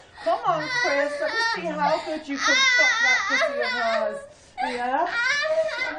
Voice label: high pitch